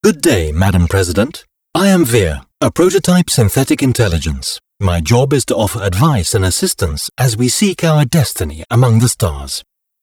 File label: generic democratic intro female, tutorial; Stellaris